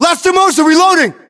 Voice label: US inform reloading, battlechatter, english

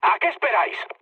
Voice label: attack position, mood low, speech; War Thunder

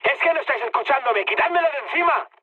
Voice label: aircraft damaged, mood high, speech; War Thunder

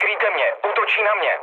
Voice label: aircraft damaged, speech cze, mood low; War Thunder

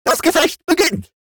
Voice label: robot Lets Battle; World Of Warships

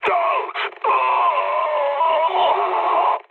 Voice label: damaged pilot mood low; War Thunder

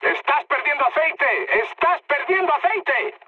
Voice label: damaged oil, mood high, speech; War Thunder

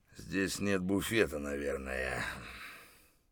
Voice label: mutter, voice; Escape from Tarkov

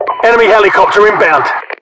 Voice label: enemy heli, localized english, UK voiceovers; mpvoice

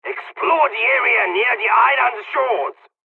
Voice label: dialogs turkish; cors mission00 fly01; War Thunder

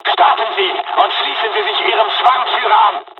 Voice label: takeoff, dialogs german; midway

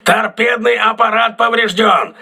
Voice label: tt damaged, crew ships russian

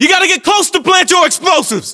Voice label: voiceovers, US; localized english

